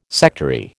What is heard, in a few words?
"sectary", American